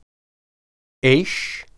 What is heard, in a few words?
"esh", Hebrew